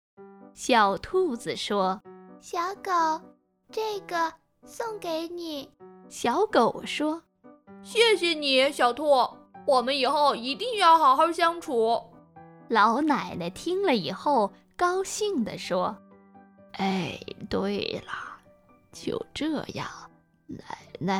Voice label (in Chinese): 卡通风格儿童故事配音